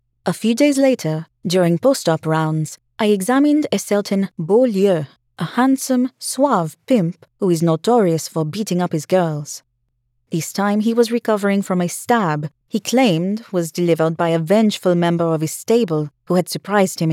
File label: Audiobook French accent